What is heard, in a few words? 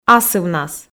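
"асывнас", Komi, female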